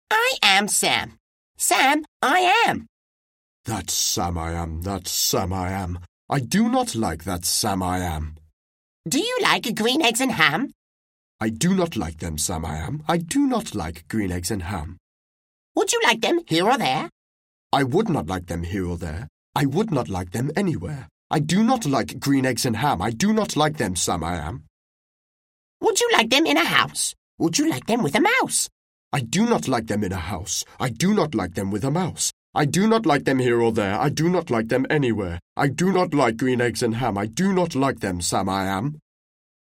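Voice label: British English, audiobook; GoLocalise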